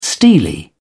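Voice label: "steely", British English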